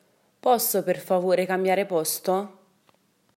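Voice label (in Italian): Cambiare Posto, Italian; italybytrain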